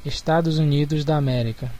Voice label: "usa", pt BR; gcompris; geography